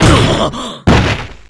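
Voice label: dynamic, Japanese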